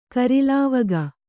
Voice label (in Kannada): "ಕೊರಲ್ವಾಗು", Kannada